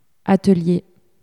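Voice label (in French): "atelier", French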